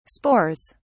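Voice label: "spores", British English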